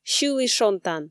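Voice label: "сьылі шонтан", Komi, female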